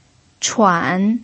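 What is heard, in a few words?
"chuan" third tone, Mandarin Chinese pinyin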